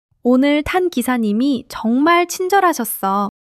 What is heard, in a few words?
joy; monologue